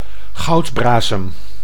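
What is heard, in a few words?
"goudbrasem", Dutch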